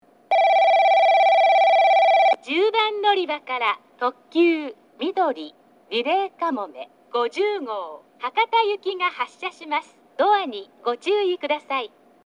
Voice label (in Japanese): 10番のりば発車放送; みどり・リレーかもめ50号 博多行き; takeo onsen